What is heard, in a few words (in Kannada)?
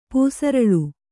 "ಪೂಸರಲು", Kannada